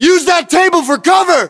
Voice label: order cover table, us

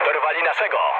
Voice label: aircraft destroyed mood low, speech pol; War Thunder

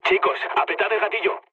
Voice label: attack position, mood low, speech; War Thunder

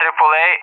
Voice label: "AAA", ENG; DCS; Allied Flight

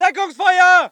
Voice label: voice ger infantry

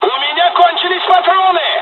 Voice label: dialogs russian; War Thunder

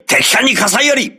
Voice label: voice message enemy on fire, crew ships japanese

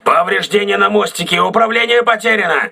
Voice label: voice message bridge damaged, crew ships russian

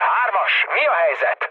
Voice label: hun chat, speech hun; War Thunder

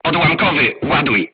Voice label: voice message load Frag, crew tanks polish

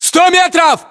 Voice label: threat distance, ru

